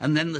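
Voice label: "anD THen THe", Australian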